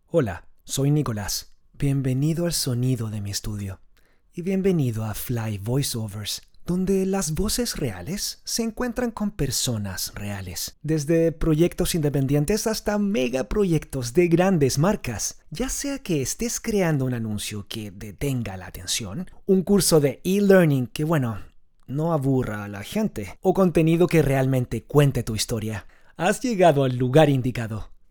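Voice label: Latin American Spanish male corporate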